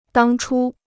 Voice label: "当初", Chinese

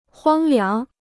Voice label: "荒凉", Chinese

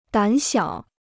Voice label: "胆小", Chinese

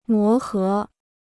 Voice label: "磨合", Chinese

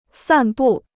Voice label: "散布", Chinese

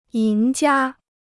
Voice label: "赢家", Chinese

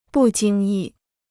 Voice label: "不经意", Chinese

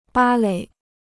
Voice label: "芭蕾", Chinese

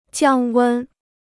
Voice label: "降温", Chinese

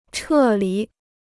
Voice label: "撤离", Chinese

